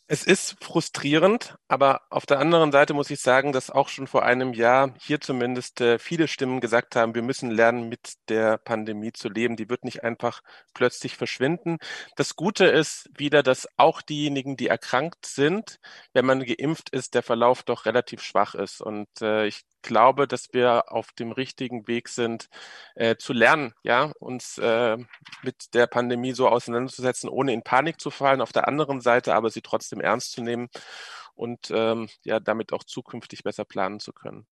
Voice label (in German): radio; Israel Oeffnung fuer Touristen verschoben; radio SAW